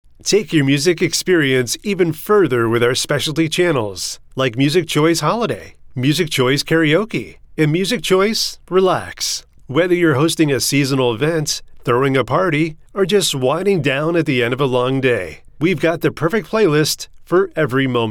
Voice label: male